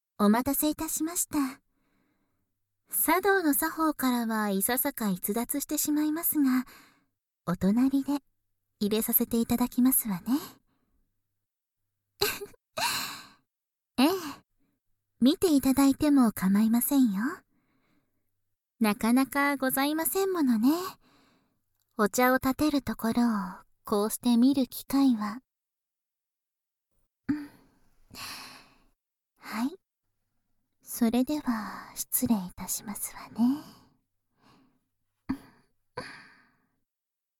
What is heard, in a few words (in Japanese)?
お茶を点てて縁側でまったり飲む（バイノーラル 効果音あり大きめ）, ASMR; 囁き重視編集; 効果音大差分